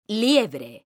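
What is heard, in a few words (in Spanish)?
"LIEBRE", female; mekanta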